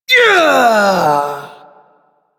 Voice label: Ability Long Cast, Barks; Warframe; Corpus Lich; Persona C